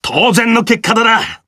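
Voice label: victory, Japanese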